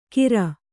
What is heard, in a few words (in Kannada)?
"ಕೀರ್", Kannada